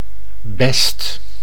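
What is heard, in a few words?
"best", Dutch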